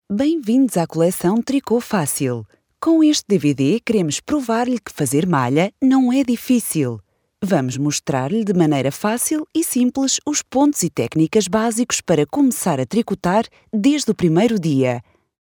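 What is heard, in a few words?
Portuguese informative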